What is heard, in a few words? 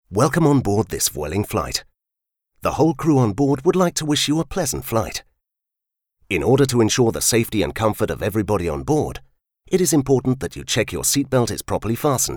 male british english plane comercial